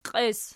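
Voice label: "qies" nominative, Archi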